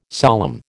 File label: "solemn", American